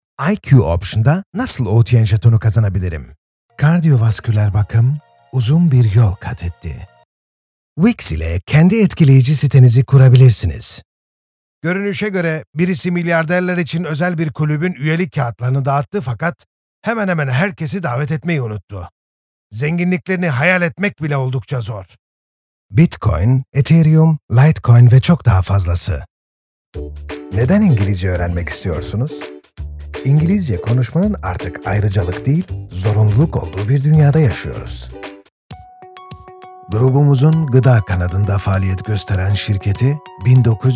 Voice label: Turkish